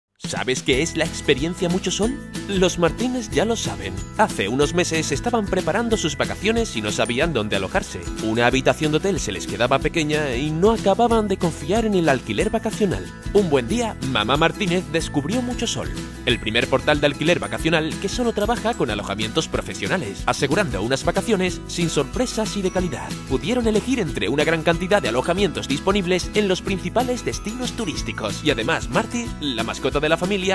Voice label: Spanish - Castilian

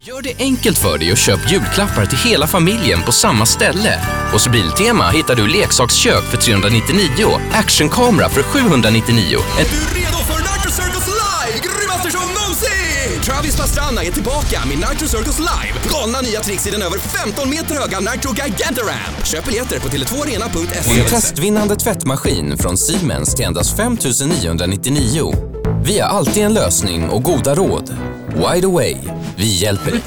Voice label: Swedish male

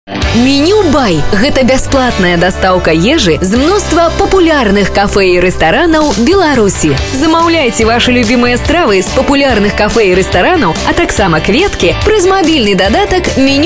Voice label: Belarusian female

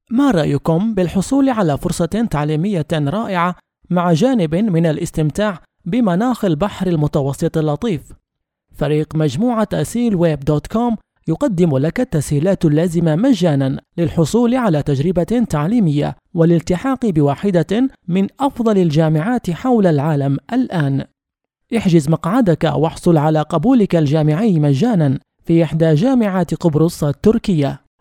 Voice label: Arabic

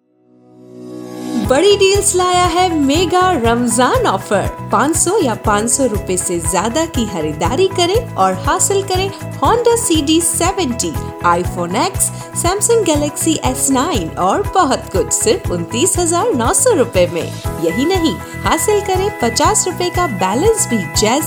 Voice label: Hindi female

